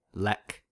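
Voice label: "lek", US English; pronunciations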